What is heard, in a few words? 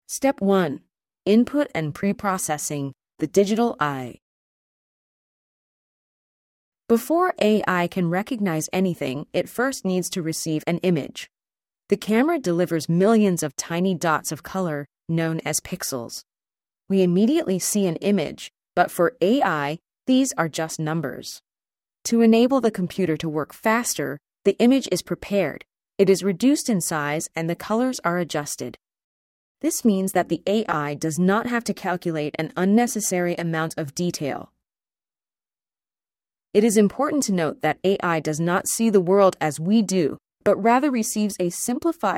english; schueler step 2